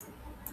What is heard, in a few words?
voice message